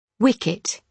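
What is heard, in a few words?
"wicket", British English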